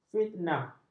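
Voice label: "fitnah", Malay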